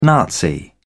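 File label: "nazi", British English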